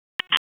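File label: Public Safety Tactical, p25; wake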